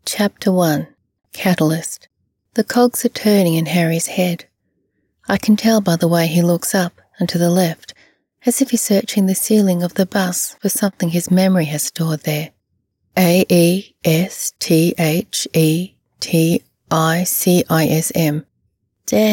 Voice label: fiction sample YA novel; Oleanders